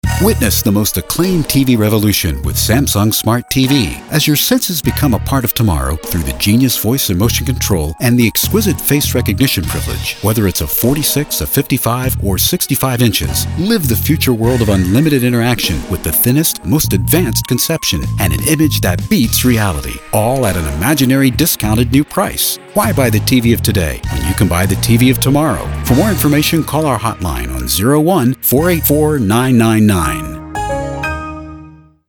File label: english american commercial